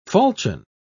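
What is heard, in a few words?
"falchion", British English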